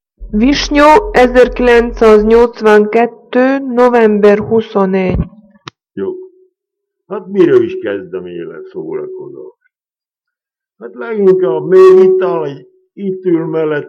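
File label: dialect collection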